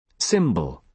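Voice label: "cymbal", British English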